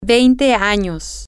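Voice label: twenty Years Old, Spanish, female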